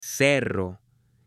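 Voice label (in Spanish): "cerro" Spanish, male; LUGARES; AppLenguaje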